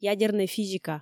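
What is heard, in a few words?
"ядернӧй физика", Komi, female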